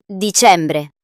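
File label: "dicembre", Italian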